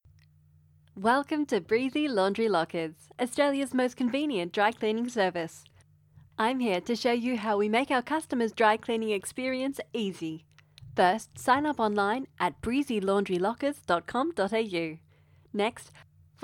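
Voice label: Laundry Corporate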